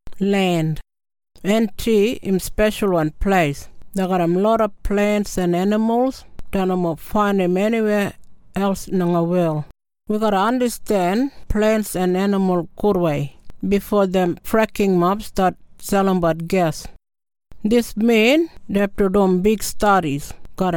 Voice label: KRIOL; LAND